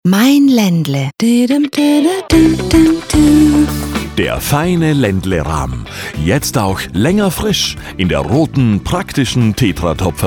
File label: ESL